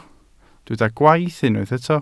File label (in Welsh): "gwaith" Welsh